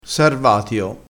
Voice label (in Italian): "sarvatio", crespinese